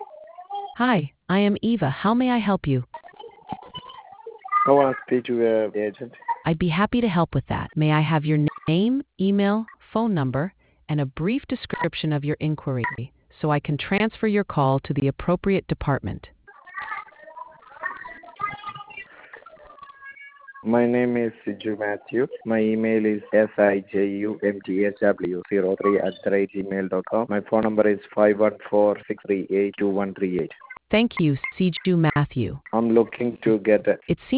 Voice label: conversation